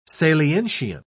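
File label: "salientian", British English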